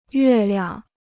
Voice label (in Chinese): "月亮", Chinese